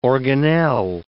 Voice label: "organelle", English word